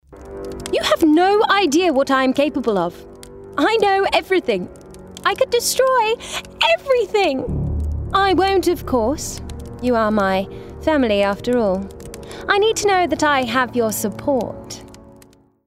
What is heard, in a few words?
Gaming Evil Royal RP